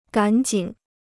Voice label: "赶紧", Chinese

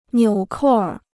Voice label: "纽扣儿", Chinese

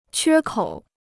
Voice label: "缺口", Chinese

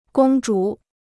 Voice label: "公主", Chinese

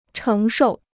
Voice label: "承受", Chinese

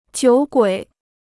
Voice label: "酒鬼", Chinese

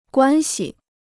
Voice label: "关系", Chinese

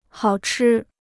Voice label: "好吃", Chinese

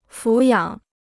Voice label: "抚养", Chinese

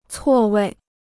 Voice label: "错位", Chinese

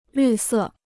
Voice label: "绿色", Chinese

